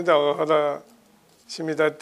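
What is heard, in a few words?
"akahra", Minamiyamagata dialect Japanese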